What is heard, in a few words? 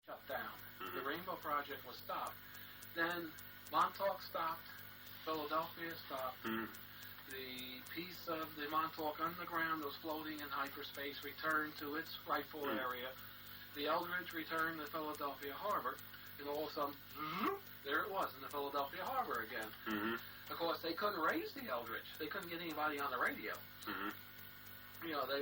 Audiobook